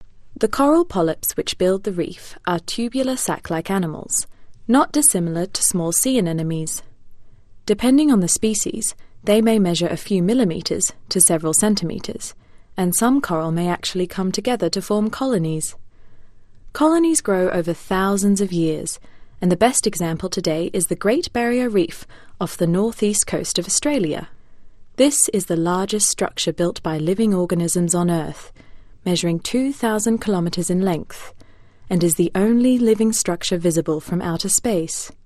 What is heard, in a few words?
Narration